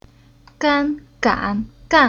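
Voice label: "Gan", Chinese syllable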